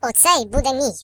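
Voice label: "This one is mine", British English; Default uk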